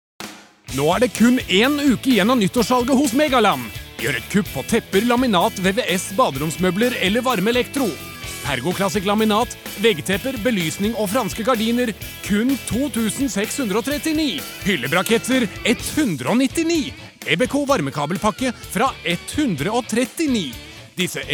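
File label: Norwegian hard sell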